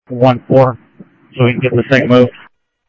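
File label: Lakes Sheriff Lake Patrol, scanner audio trunk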